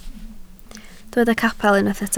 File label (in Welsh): "capel" Welsh